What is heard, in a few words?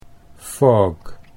"fog", Dutch English